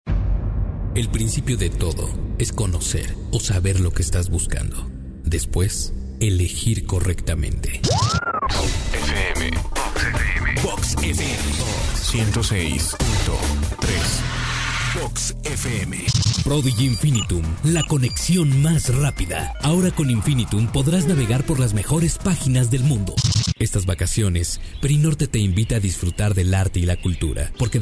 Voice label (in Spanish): LOCUTOR DEMO VOZ